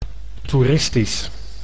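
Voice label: "toeristisch", Dutch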